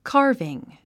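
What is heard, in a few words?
"carving", English; 発音 kάː r viŋ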